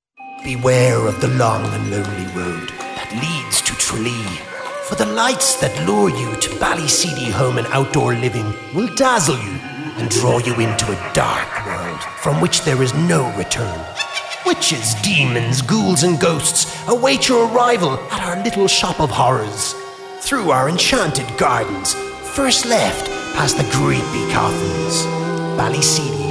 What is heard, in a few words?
Halloween Spot